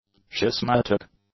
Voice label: "schismatic", English; adjectives